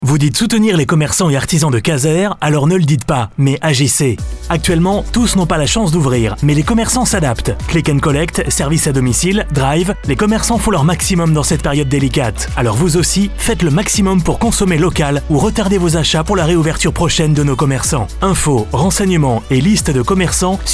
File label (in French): Spot radio commercants; commercants de Cazeres